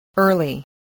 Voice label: "early", English